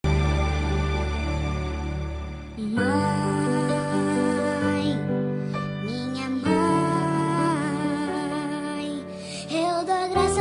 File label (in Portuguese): dm voz infantil mae masculina; dia das maes para minha mae voz masculina infantil